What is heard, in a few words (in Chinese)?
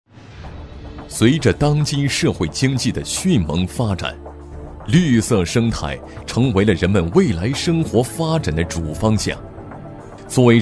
男20 项目介绍 解说; 西峡生态展馆规划